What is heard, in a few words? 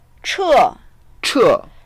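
"che4", Mandarin Chinese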